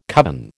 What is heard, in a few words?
"covin", American